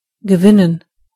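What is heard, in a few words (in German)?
"win", German; gcompris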